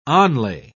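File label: "onlay", British English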